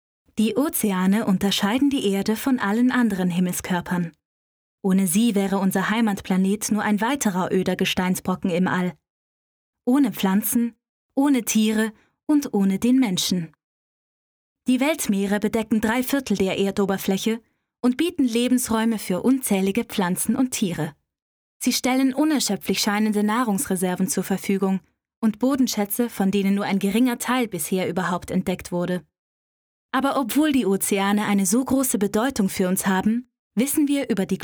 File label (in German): Dokumentation; LOFT VOICES